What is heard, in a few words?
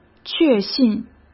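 "确信", Mandarin Chinese; hsk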